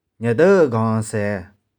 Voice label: "nih4deu1gong3sae1", 玉環

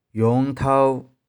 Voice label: "yung1thou3", 玉環

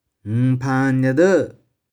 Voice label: "ng1phang1nih4deu1", 玉環

